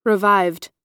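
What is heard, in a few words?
"revived", American English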